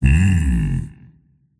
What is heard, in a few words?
happy; dota; tiny